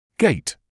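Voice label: "gait", British English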